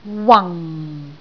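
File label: "wang4", Cantonese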